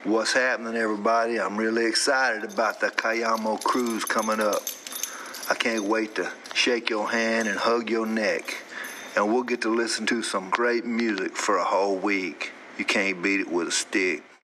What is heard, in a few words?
voicemail; cayamo